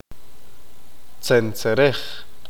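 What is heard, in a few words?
"pájaro carpintero", Q'eqchi'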